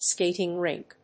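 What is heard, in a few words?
"skating rink", English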